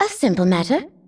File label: female; baldurs1